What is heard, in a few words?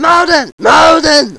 viet infantry